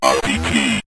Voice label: rpg, synth voice; Kenney's Sound Pack